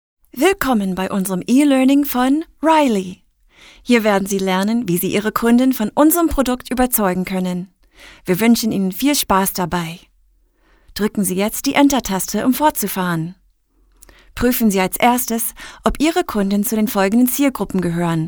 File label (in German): elearning deutsch; sprecher